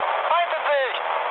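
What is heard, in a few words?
German enemy detected mood med, speech; War Thunder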